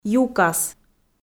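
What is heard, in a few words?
"юкас", Komi, female; kpv audio dict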